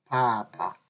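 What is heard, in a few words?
"papa", Nigerian Pidgin, male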